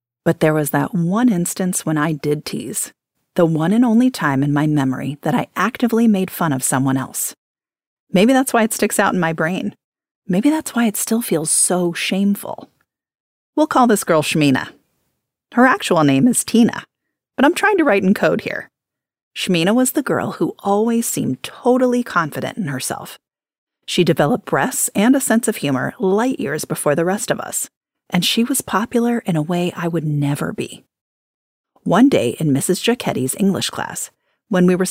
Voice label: Audiobook